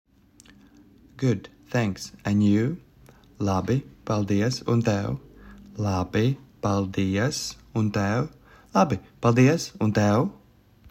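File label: "Good thanks and you", Latvian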